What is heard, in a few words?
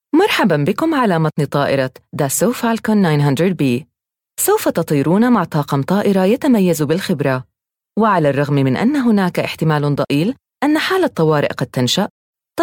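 Arabic, female, Plane Instructions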